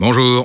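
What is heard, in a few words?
"bonjour", French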